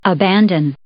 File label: "abandon", English